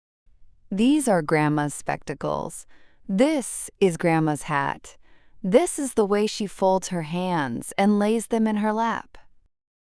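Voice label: female US; Grandmas Spectacles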